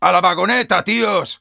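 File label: Sniper cart going back offense soft, Spanish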